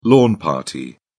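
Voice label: "lawn party", British English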